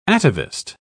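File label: "atavist", English, uk British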